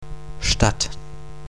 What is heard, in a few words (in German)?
"Stadt", pronunciation